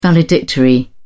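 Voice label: "valedictory", British English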